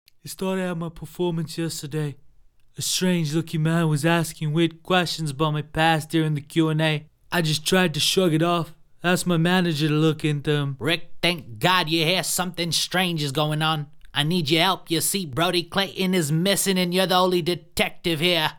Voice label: voice over radio fm podcast audiobook characters; audition